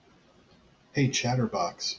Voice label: hey chatterbox, en us